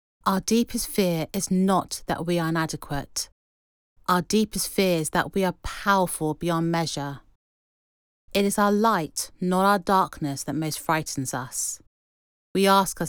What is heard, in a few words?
female british voice over narrative; english british